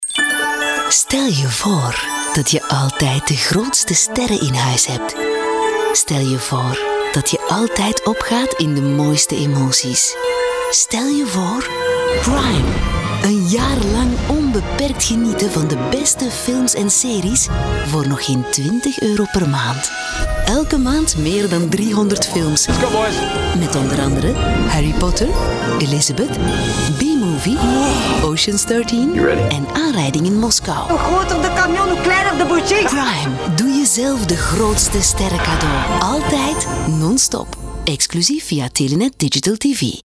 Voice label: soft; leise; Telenet Prime